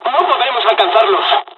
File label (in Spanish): dialog, dialogs spanish; War Thunder